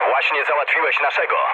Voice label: pol player killed ally mood low, speech pol

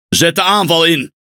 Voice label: NL Speech Pilots Bomber Engage; World Of Warships

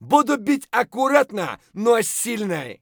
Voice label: scav mutter, voice; Escape from Tarkov; scav2

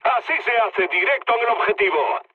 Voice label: bomb success, mood low, speech; War Thunder

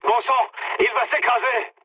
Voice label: aircraft destroyed mood med, speech fr

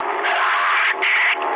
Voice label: damaged pilot, speech ru

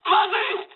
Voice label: jp trick, speech jp

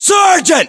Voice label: rank sergeant, US

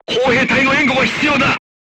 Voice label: voice message art command, crew tanks japanese

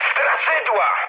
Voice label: enemy detected mood high, speech pol; War Thunder

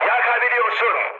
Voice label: damaged oil, mood high, speech tur; War Thunder

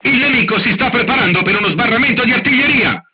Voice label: art shooting warning, crew tanks italian; War Thunder

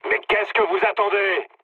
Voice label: attack position mood low, speech fr; War Thunder

